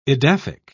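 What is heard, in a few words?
"edaphic", British English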